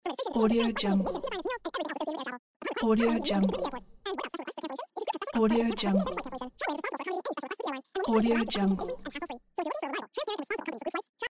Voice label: cartoon talk

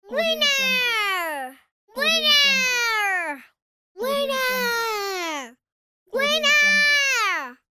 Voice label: little girl voice winner pack

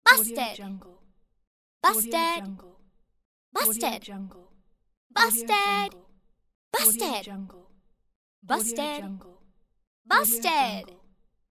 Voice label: teenage girl saying busted

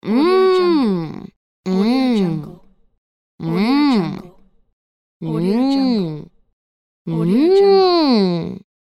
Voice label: female saying mmm

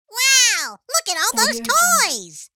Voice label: elf wow look at all those toys

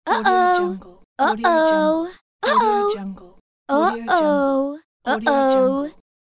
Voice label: teen girl uh oh

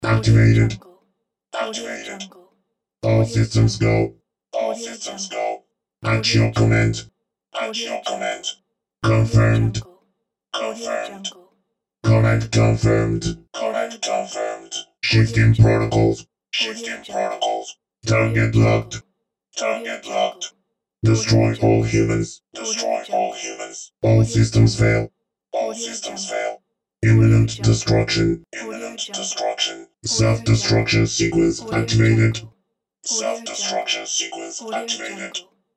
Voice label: robot talk

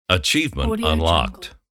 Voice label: announcer saying achievement unlocked

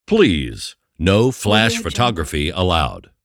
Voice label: announcer please no flash photography allowed

